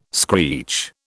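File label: "screech", British English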